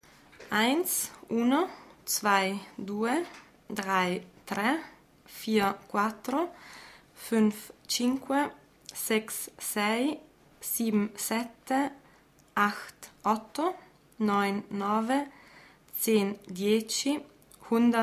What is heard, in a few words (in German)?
Deutsch Italienisch